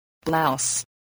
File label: "blouse", spelling